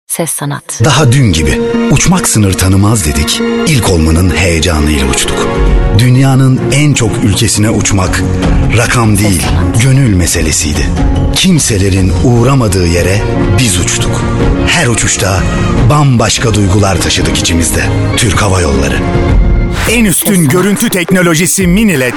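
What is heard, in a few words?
Turkish, male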